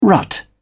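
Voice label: "rut", British English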